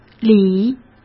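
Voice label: "礼", Mandarin Chinese; hsk; chinese-flashcards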